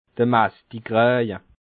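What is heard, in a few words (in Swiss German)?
"messtikraje", Bas Rhin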